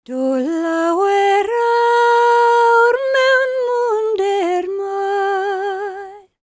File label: WELSH F, World Voices